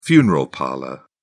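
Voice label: "funeral parlour", British English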